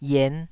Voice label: "ien5", taiwanese syllables

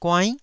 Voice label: "guainn9", taiwanese

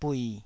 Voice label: "bui3", taiwanese

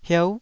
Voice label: "hieu9", Taiwanese syllable

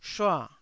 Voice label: "shua4", taiwanese Syllables

